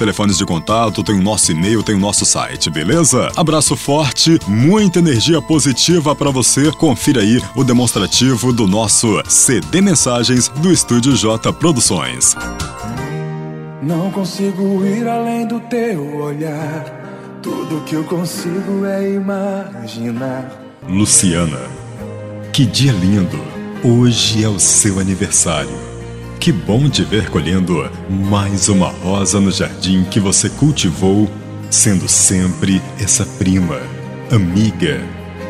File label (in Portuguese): locutor chamada cd mensagem